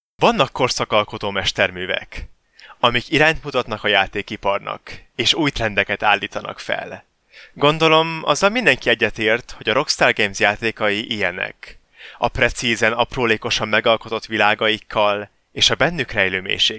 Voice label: Male, Hungarian

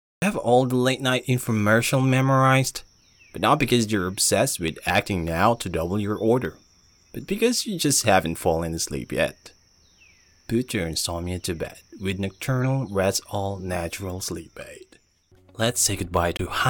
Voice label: English (Asian), Male

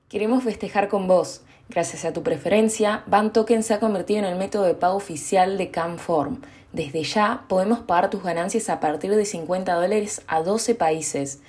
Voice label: Spanish (LA), Female

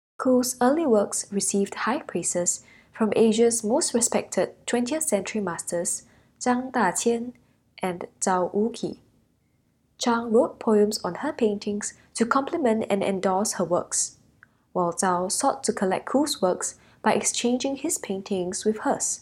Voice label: English (Asian), Female

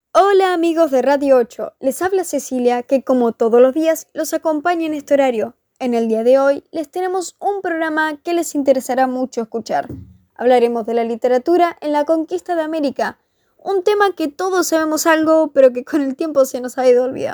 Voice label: Spanish (LA), Female

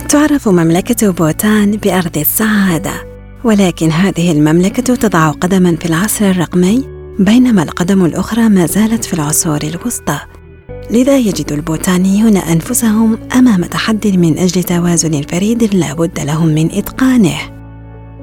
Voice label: Arabic, Female

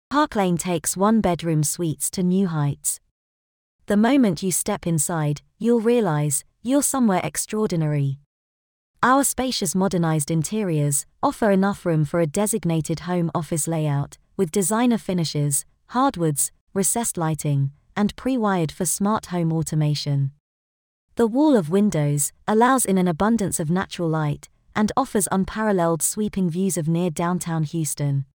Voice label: English (UK), Female

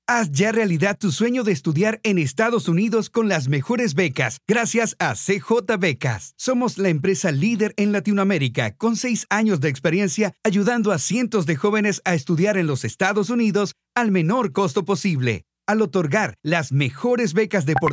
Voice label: Spanish (LA) Male

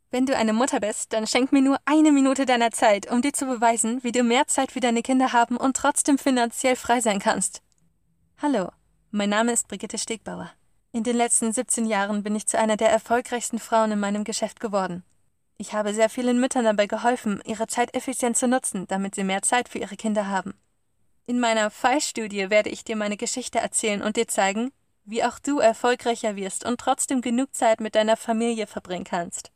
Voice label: German, Female

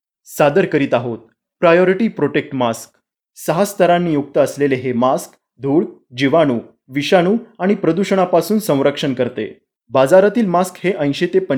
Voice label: Marathi, Male

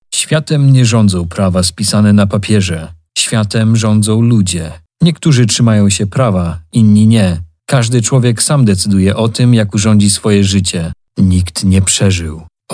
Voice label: Polish, Male

